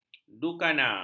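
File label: "dukana", Malay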